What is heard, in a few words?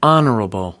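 "honorable", American English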